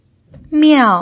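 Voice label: "miao", pinyin, fourth tone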